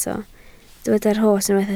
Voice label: "rhos", Welsh